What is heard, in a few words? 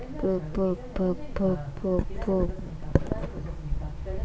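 "book", spoken word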